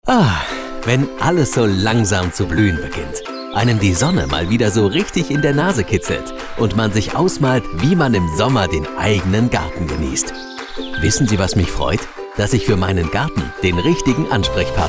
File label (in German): Sprecher werbung; gartencenter